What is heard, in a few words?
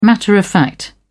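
"matter-of-fact", British English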